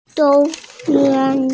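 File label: "sound", Mandarin Chinese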